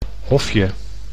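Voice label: "hofje", Dutch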